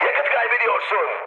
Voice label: tank damaged, mood high, speech tur; War Thunder